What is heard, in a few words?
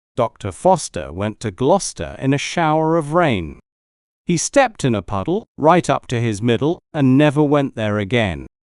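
male British; Doctor Foster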